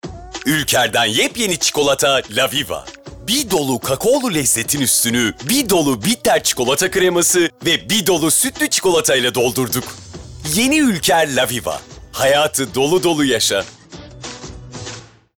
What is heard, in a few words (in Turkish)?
Neseli